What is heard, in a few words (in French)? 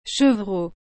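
"chevreau", French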